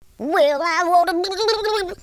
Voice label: angry, Blues Man speech

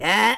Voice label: jump, London Cabbie; speech

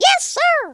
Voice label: "yes sir", Cowgirl; speech

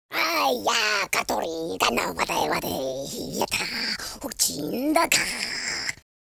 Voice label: angry; Confucious

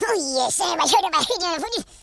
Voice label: angry; Capone

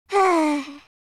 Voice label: sad, German

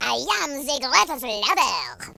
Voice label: flawless, French Lover; speech

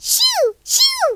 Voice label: leavemealone, Southern Belle; speech

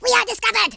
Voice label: take cover, speech, Horror

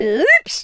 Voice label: "oops", Scotsman; speech

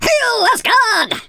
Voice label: brilliant, speech, Viking

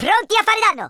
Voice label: orders, Italian

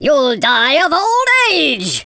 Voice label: coward, speech, Viking

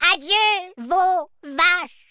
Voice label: bye bye, French; speech

